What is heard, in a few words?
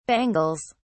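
"bangles", British English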